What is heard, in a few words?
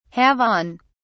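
"have on", British English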